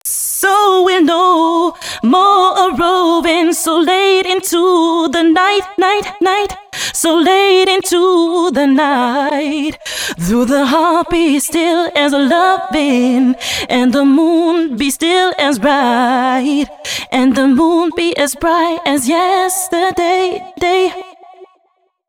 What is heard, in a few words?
female c# 140 bpm, hooklines, Dance Ultra Voice; hooklines 136-140 BPM; Mutekki Media; Dance Ultra Voice VOL-1